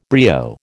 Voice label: "brio", American